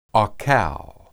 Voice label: "akal", Hebrew